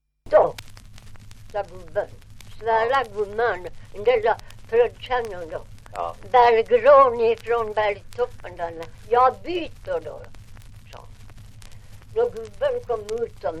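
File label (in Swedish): Ovansjö Gästrikland, Svenska sagor, dialekter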